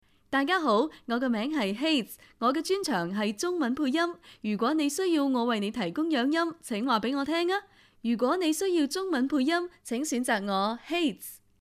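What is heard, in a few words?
Chinese Cantonese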